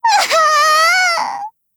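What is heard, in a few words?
LOW MOOD, Japanese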